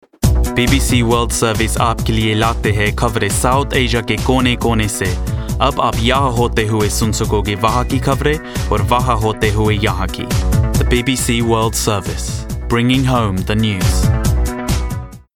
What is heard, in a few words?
hindi